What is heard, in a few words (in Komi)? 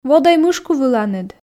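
"водӧй мышку выланыд", Komi, female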